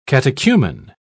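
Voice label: "catechumen", British English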